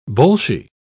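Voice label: "bolshie", British English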